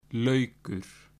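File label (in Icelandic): "laukur", Icelandic; matarhefti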